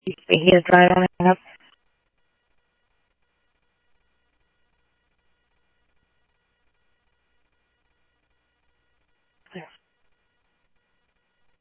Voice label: Police, scanner; H-Scottsdale; ScottsdalePolice

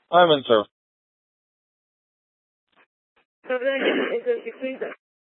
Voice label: Court Security Dispatch, scanner; Maricopa County (P25) - Thompson Peak

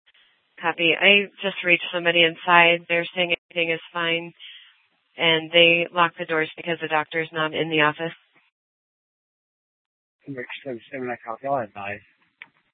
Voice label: Superstition Dispatch, Mesa PD

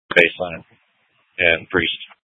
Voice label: Tempe PD, scanner; A04 South

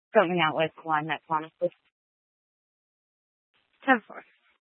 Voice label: Tempe PD, scanner; Rgnl Wireless Co-op (RWC)

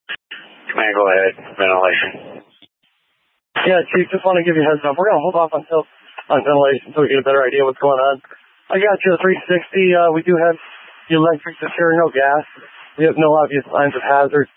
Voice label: Fire Dpts VHF 154.800 Patch, scanner; TOPAZ PD (TRWC); Mesa

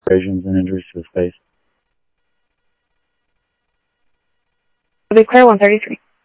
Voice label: Sheriff scanner audio

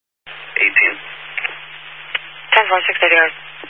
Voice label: Highway Patrol, Phoenix Metro West Area, scanner

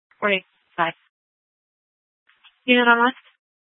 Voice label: Sheriffs Office, scanner

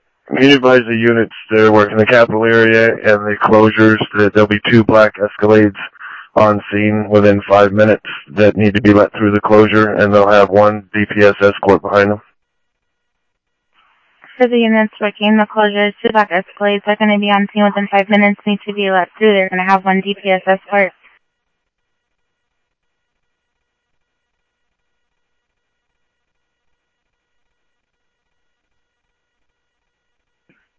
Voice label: Interop MutualAid, scanner audio; SimulcastF-Tempe; G9 Interop

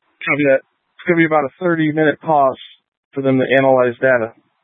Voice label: Light Rail Emergency, scanner

